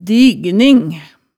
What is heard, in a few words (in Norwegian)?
"digning", hemsedalsmaalet tale